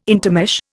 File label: "intermesh", Indian